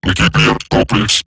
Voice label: Cover Me, Robot Large; Warframe; Eximus Corpus Robotic Large; Battle Barks

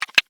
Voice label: Horse Start Adult, Dialog; Duviri

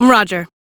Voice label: Accept, Female; en; Warframe; Railjack Crew; Cephalon Suda

